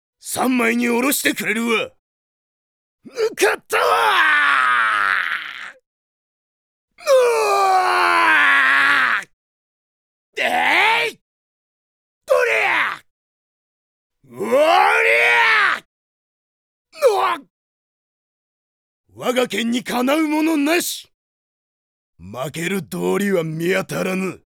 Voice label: character voice; D-XHIRD; SANJUROH